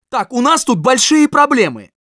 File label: Scout negative vocalization, ru